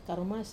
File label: "karumas", hot water, wamesa